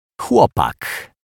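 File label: "chlopak", Polish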